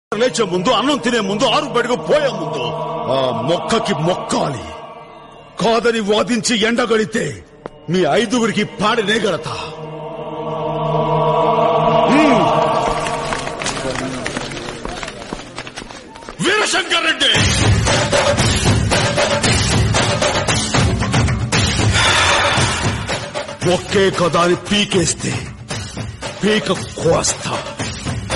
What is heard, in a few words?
dialogues, tamil; oh baby movie